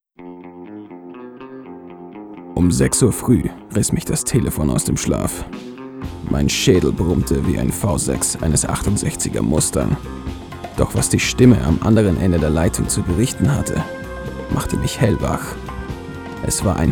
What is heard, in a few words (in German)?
Spot